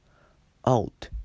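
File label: "alto", JPN, male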